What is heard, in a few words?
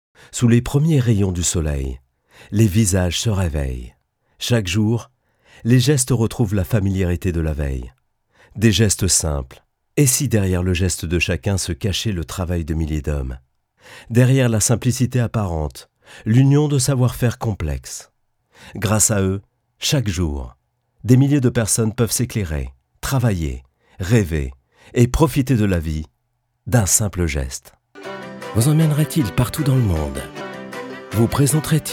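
French, Corporate; GoLocalise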